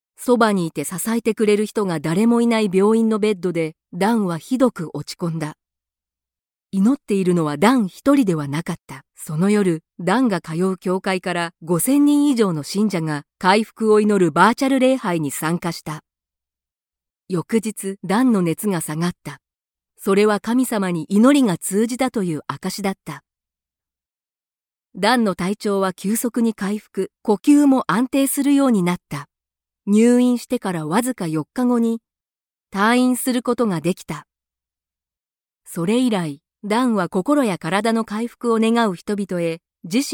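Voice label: Japanese, Documentary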